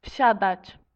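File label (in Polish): "wsiadac", Polish; transport; multimedia glossaries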